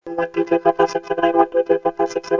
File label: count, vocoder